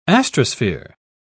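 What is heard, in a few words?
"astrosphere", British English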